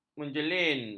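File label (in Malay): "menjeling", Malay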